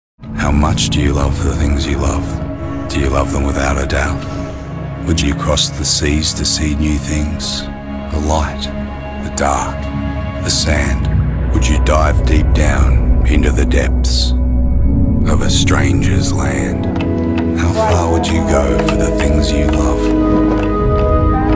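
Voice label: English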